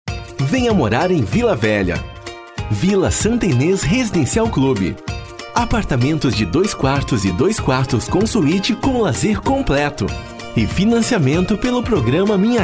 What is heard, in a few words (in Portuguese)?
JOVEM MÉDIO